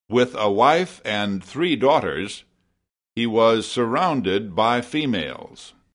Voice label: FEMALE